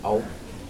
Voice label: one, Mokilese